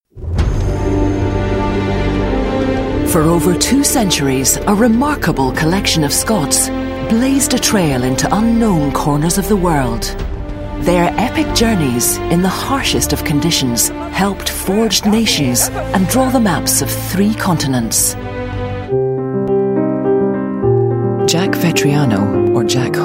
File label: Documentary